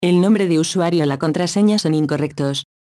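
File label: Username Or Password Incorrect, Spanish; OpenCore; AXEFIAudio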